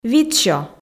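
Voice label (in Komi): "витсё", Komi, female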